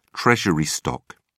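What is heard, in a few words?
"treasury stock", British English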